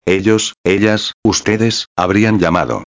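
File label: "habrian llamado", Spanish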